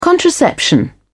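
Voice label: "contraception", British English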